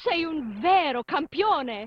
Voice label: italian voice; BALLY; CORVETTE; Son Corvette Original